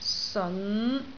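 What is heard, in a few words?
"seon5", Cantonese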